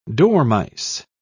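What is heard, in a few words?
"dormice", British English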